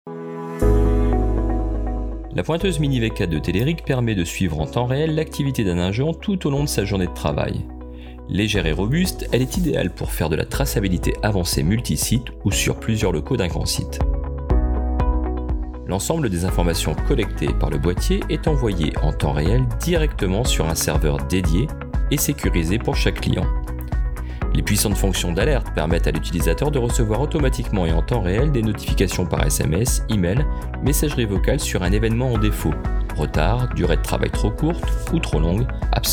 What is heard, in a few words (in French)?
VOIX OFF; POINTEUSE MINI